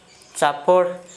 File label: slap, Gta, Dialect: Hill; tʃapor